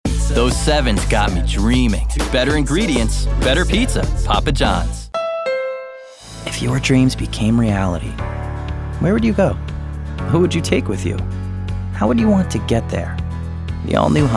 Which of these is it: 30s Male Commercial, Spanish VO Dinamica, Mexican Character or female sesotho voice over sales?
30s Male Commercial